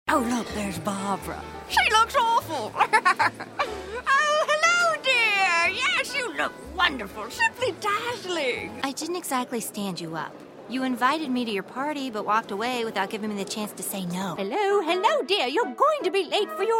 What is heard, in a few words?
animation